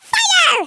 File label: "fire", American; Worms Armageddon